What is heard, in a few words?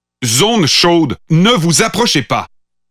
Pathfinder French Standard Canadian